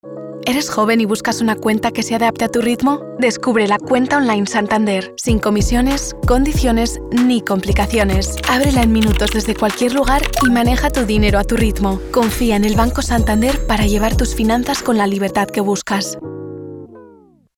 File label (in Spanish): SPA Castilian Inspirador Motivacional; Santander